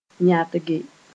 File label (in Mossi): "yandge", moore